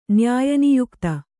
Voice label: "ನ್ಯಾಯನಿಯುಕ್ತ", kannada